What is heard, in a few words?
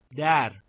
"dar", Persian